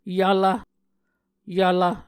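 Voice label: "yvlv", Muscogee word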